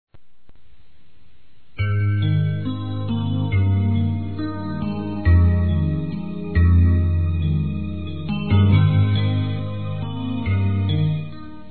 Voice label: Desculpas para Irmã, masc; Telemensagens 2017

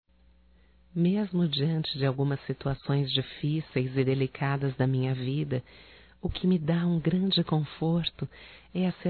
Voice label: Aniversário Irmão Feminino; Aniversarios; Telemensagens; Telemensagens 2017

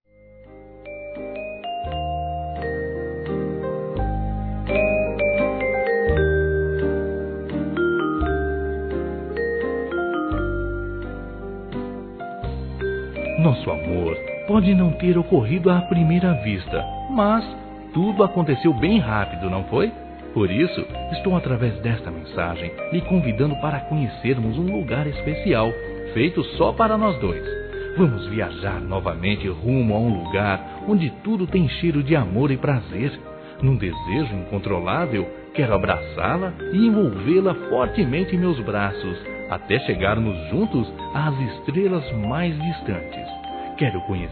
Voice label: CONVITE, Sensuais, masc; Telemensagens

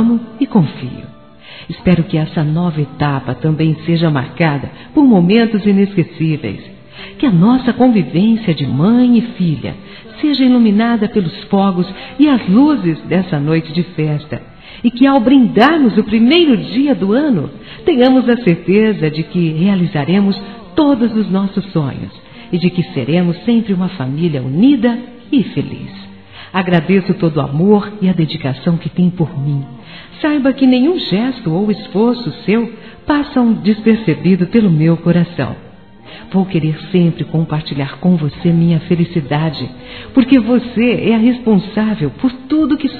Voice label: ANO NOVO P MÃE, fem; Telemensagens; Natal e Ano novo

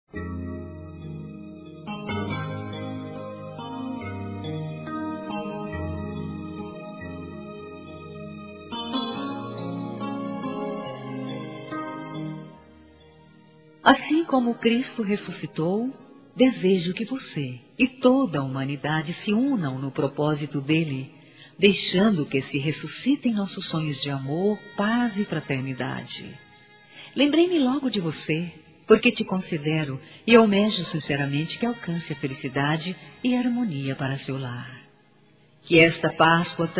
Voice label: FELIZ PASCOA NEUTRO F, fem; Telemensagens

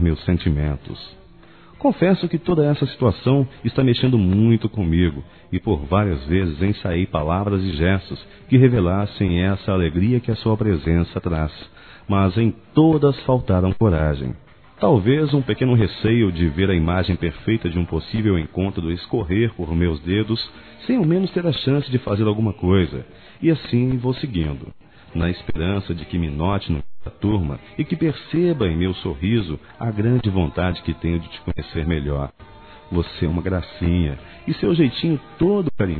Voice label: PAQUERA M; Telemensagens 2017

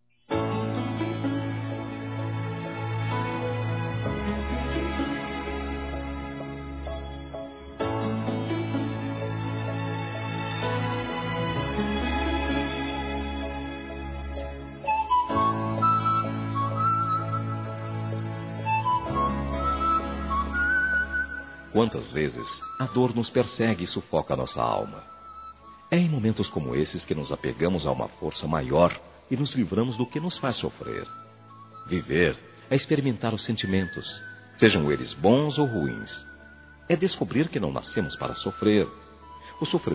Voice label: Conforto Amigo Masculino, Telemensagens; Telemensagens 2017

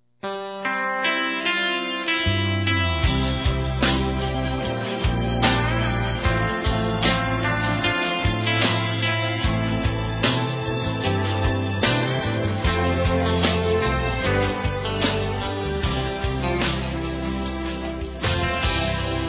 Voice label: Aniversário Sertanejo Romantico Feminino

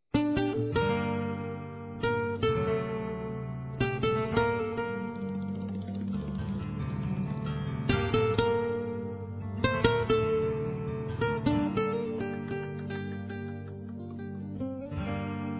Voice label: FILHO(A) AINDA NÃO NASCEU (INFANTIL); Telemensagens 2017

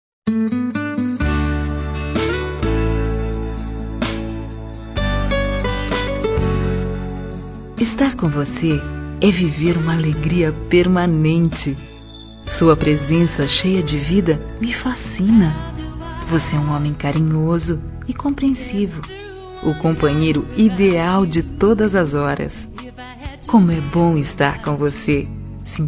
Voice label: ROM DECLARACAO FEM; Telemensagens; Declaração de amor; Telemensagens 2017

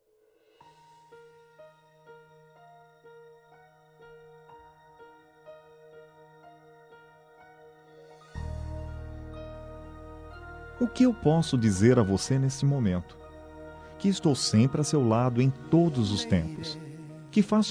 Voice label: MENSAGEM DO ANJO MENSAGEIRO MASC; Telemensagens; Telemensagens 2017

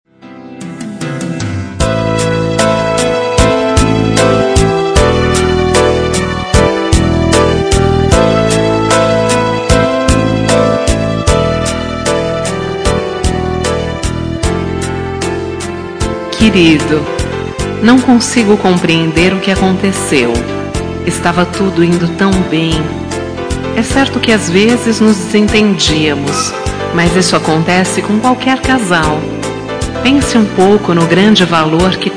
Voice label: ROM RECONCILIACAO FEM, Telemensagens

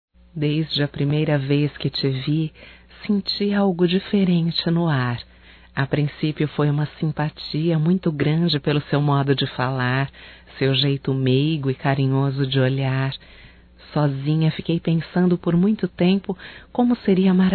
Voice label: QUERO FICAR COM VOCE(F, Românticas fem

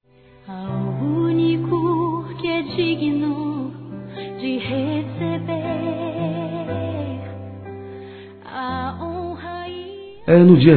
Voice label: Aniversário Casamento Romentico Masculino; ANIV. Evangelico

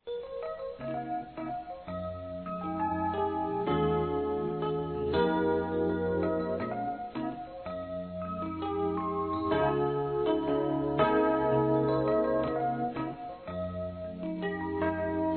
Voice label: RECONCILIAÇÃO M; Telemensagens

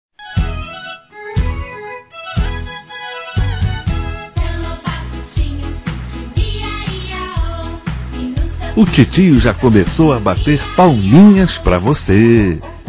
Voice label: Sobrinho (a) Criança Masculino; Telemensagens 2017; Aniversários; Aniversário Infantil

